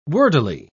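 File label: "wordily", British English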